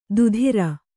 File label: "ದುಧಿರ", kannada